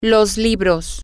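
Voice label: Spanish, the books, female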